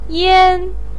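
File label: "yan1" Chinese syllable, pinyin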